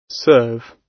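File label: "serve", British English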